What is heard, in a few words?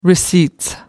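"receipts", British English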